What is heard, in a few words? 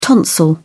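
"tonsil", British English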